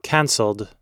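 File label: "cancelled", American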